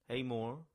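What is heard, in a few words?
"Heamoor", English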